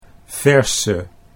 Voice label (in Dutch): "verse", Dutch